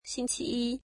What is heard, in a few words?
Monday, mandarin